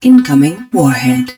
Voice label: HUD Incoming Warhead, english; Battle Engine Aquila; XBOX

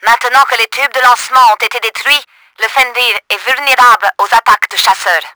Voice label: launcher down, french; Battle Engine Aquila

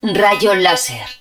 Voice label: beam laser, Spanish

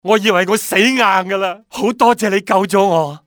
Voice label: thank, male; Soldier Of Fortune II Double Helix; XBOX; civ

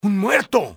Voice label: man down, spanish soldier; enemy; XBOX

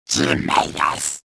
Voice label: give up, trandoshan; XBOX

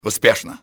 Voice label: success, russian soldier; enemy; XBOX

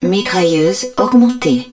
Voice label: hud rail gun augmented, french

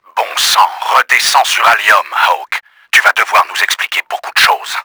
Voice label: angry, french; KRAMER; Battle Engine Aquila

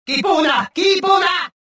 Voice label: cover, rodian

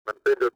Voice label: spanish; XBOX; ambience

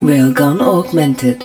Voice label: hud rail gun augmented, english; Battle Engine Aquila; XBOX

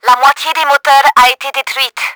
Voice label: engine down, french; Battle Engine Aquila

